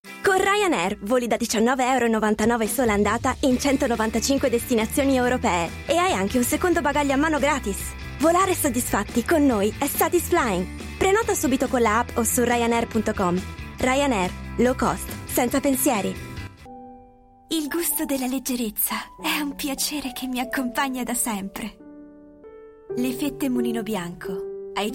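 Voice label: IT General, Italian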